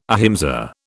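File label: "ahimsa", British English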